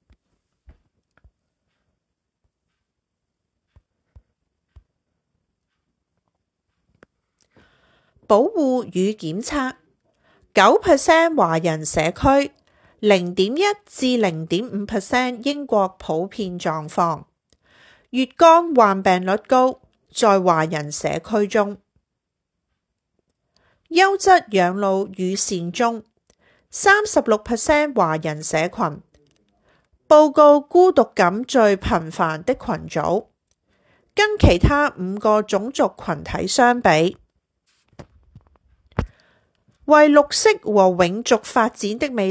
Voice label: Cantonese audio version; Chinese Health Profile infographic right column